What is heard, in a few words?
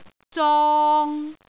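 "zong3", Cantonese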